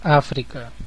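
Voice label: "africa", Brazilian Portuguese; gcompris; geography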